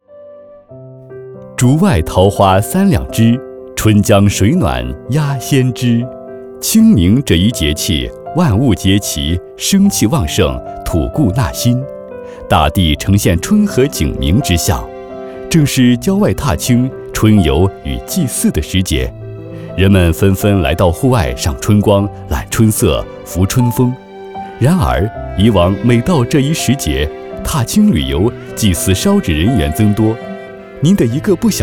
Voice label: 磁性温暖公益广告

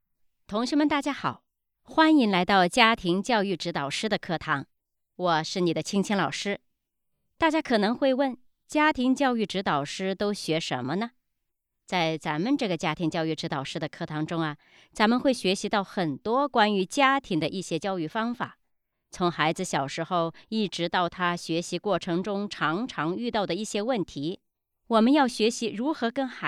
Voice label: 培训课堂; 家庭教育指导师

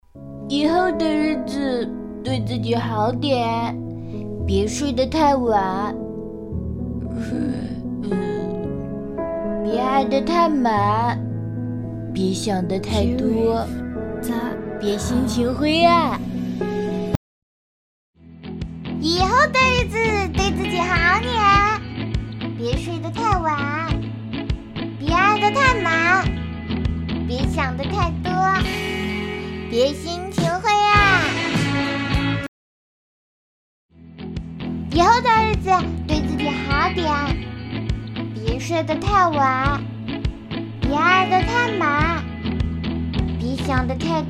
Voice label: 卡通 小猪; 抖音卡通小猪三版

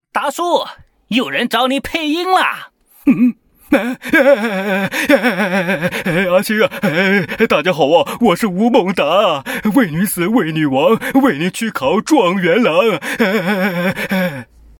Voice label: 模仿; 吴孟达

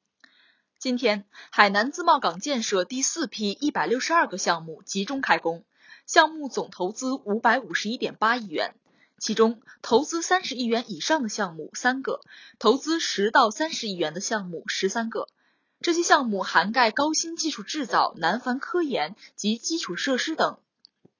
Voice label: 新闻

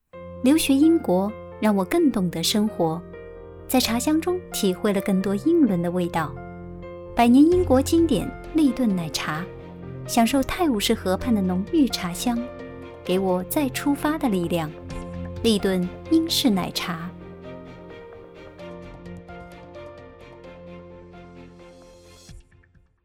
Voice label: 奶茶广告; 立顿

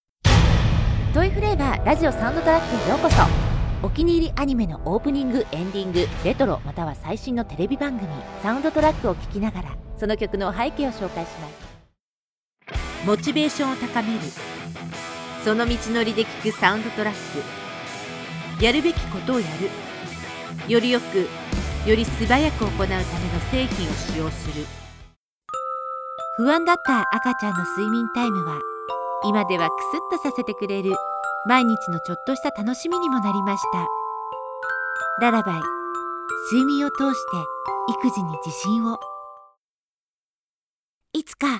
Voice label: 日籍女

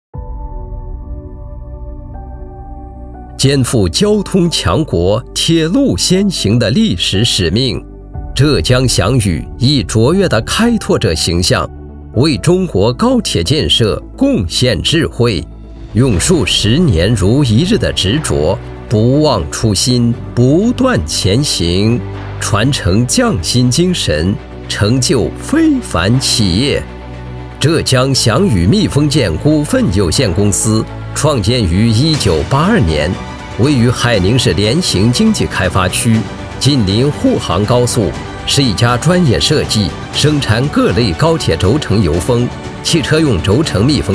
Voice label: 宣传片 样音 大气激扬; 浙江翔宇

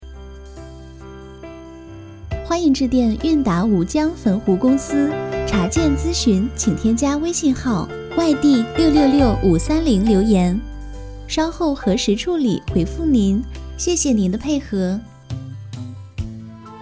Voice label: 彩铃温柔

